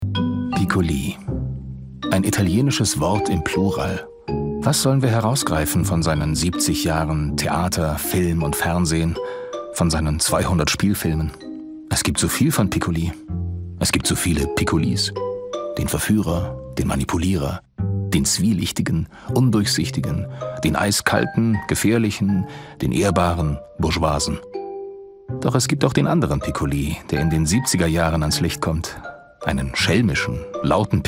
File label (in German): dokumentation kommentar; LOFT VOICES